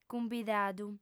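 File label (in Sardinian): "cumbidadu", female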